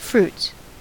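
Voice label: "fruits", American English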